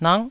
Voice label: "nak9", taiwanese

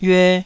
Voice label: "yue2", taiwanese Syllables

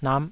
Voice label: "nap5", taiwanese

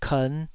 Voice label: "kern5", taiwanese syllables

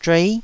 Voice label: "zhuei9", Taiwanese syllable

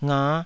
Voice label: "nga5", Taiwanese

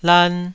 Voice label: "lan2", Taiwanese syllable

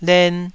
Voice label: "len2", Taiwanese syllable

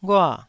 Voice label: "ghua4", Taiwanese syllable

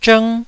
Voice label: "zhng1", taiwanese

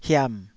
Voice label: "hiam3", Taiwanese syllable

